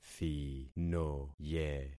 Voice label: "thinoye", concat syllables; Affixing experiment

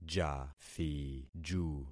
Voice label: "jathiju", concat syllables; Affixing experiment

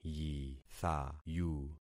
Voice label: "yithayu", concat syllables; Affixing experiment

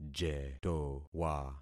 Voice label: "jetowa", concat syllables; Affixing experiment

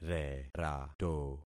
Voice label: "rerato", concat syllables; Affixing experiment

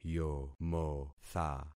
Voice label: "yomotha", concat syllables; Affixing experiment

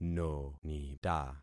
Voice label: "nonita", concat syllables; Affixing experiment

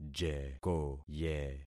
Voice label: "jekoye", concat syllables; Affixing experiment

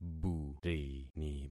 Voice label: "burini", concat syllables; Affixing experiment

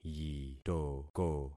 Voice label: "yitoko", concat syllables; Affixing experiment

